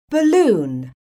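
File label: "BALLOON", English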